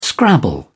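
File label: "scrabble", British English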